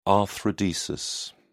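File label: "arthrodesis", English, British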